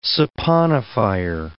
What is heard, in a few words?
"saponifier", English